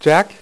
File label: "jack", dealer's speech; PowerPOKER Demo